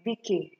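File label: "BK", Nigerian Pidgin, female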